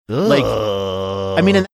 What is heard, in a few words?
drawn out eugh